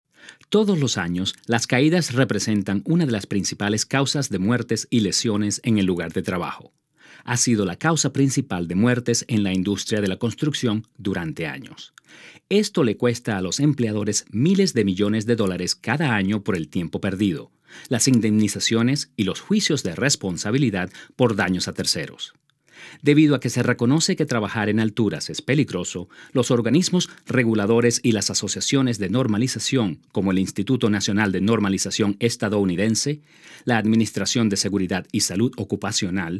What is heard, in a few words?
web spanish